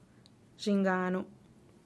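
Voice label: "zhinganu", Teotitlán del Valle Zapotec; your son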